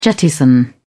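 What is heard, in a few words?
"jettison", British English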